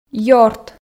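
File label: "ёрт", Komi, female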